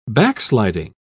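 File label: "backsliding", British English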